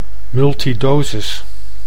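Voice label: "multidosis", Dutch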